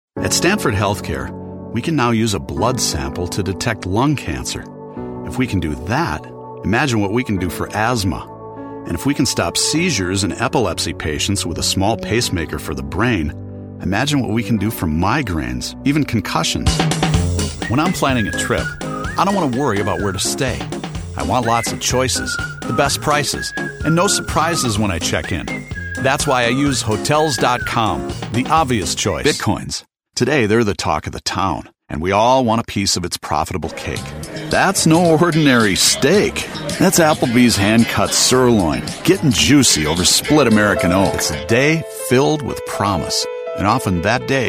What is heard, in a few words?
Commercial